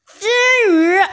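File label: "jin1yu2", Mandarin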